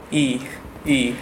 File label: yi male